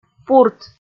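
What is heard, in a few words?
"пурт", Komi, female; kpv audio dict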